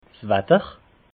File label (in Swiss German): "watter", alsace